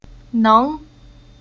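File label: "Neng", Chinese syllable; Уроки фонетического блока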